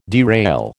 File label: "derail", American